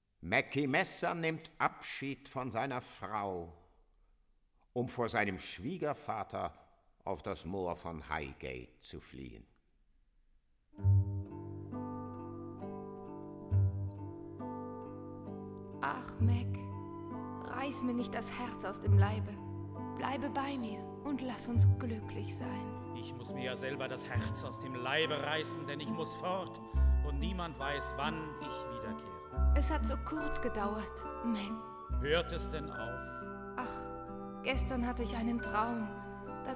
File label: Melodrama; The Threepenny Opera [1988 German Studio Cast]